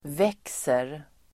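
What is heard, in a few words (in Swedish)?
"växer", Swedish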